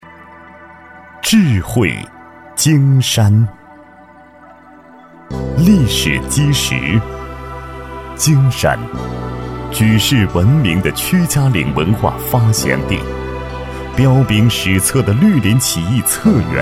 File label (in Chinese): 男, 地区宣传片; 智慧京山宣传片